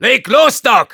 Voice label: Soldier activate charge, de; "Leg los Doc!"; Soldier voice commands/de

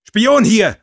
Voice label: cloaked spy, de; Sniper

